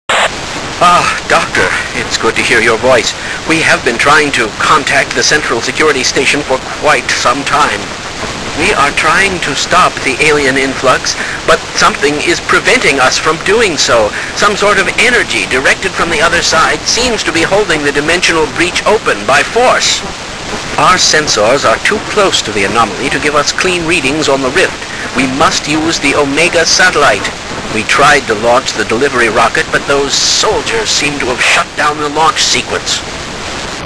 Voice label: radio; portal house